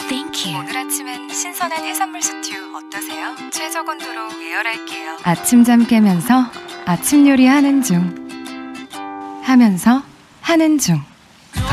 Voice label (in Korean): 자연스러운; lg씽큐